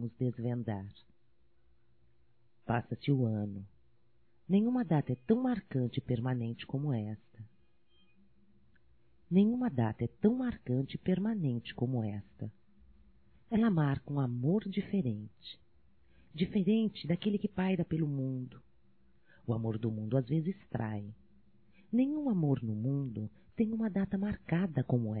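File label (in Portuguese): NATAL NEUTRO, feminino; Telemensagens 2017; Natal & Ano Novo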